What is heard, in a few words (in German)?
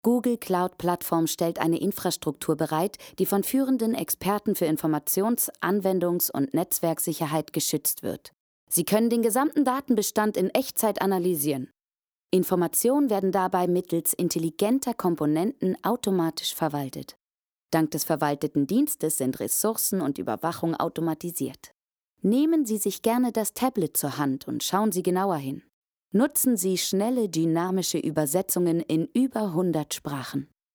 E-Learning Hörprobe sachlich; Google